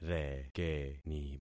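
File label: "rekeni", concatenated syllables; Affixing experiment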